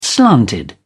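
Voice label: "slanted", British English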